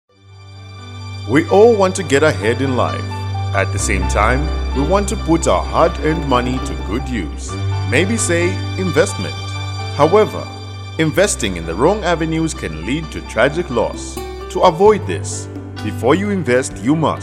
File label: Fraud Alert ENGLISH